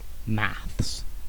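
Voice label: "maths", British English; pronunciations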